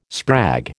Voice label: "sprag", American